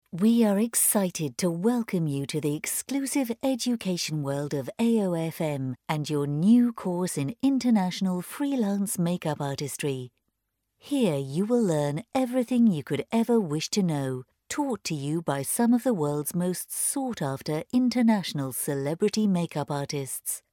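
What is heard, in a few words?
English British, female, narration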